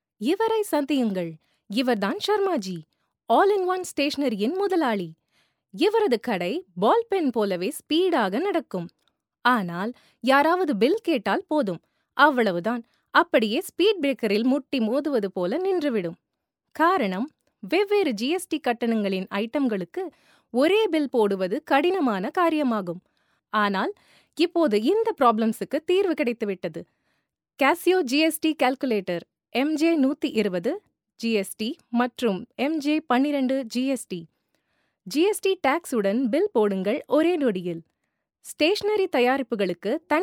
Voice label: Explainer Tamil

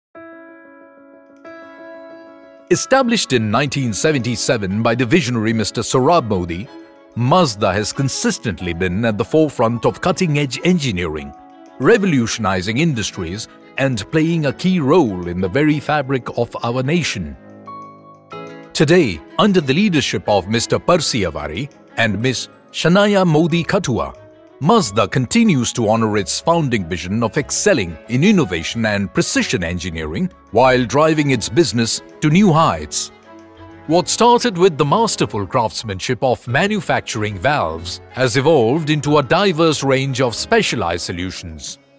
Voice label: CORPORATE ENGLISH

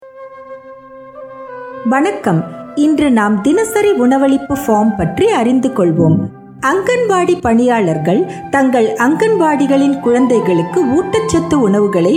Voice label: tamil

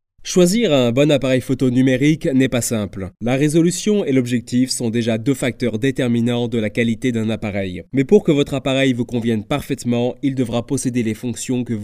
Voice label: Narration

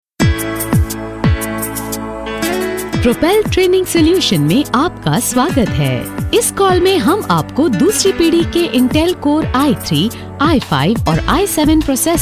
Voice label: IVR

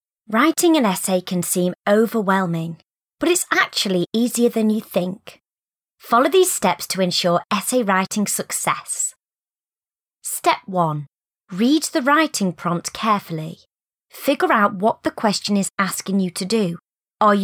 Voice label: elearn

